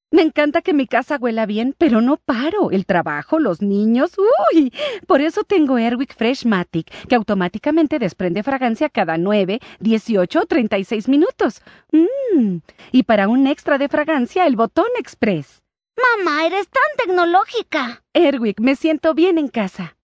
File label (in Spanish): Spanish South America, F